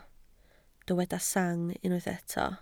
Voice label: "sang", Welsh

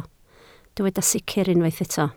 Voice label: "sicr", Welsh

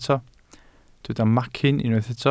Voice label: "macyn", welsh

